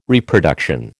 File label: "Reproduction", American